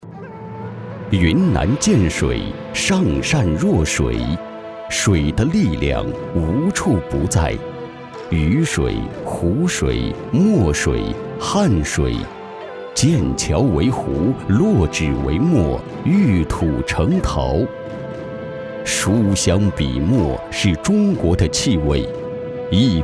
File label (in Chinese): 男 历史申遗类; 建水紫陶